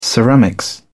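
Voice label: "ceramics", British English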